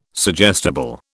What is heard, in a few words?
"suggestible", British